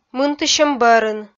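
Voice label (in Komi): "мынтысьӧм бӧрын", Komi, female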